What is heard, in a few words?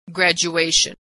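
"graduation", American English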